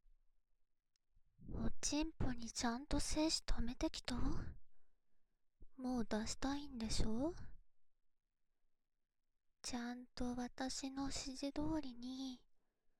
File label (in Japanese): 我慢の後のオナニー指示